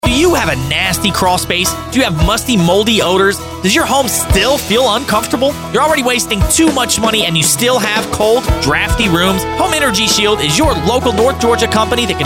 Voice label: radio spot; home energy sheild free audit